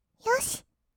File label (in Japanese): "よし", kawaii voice; ASMR; 色々なワンショット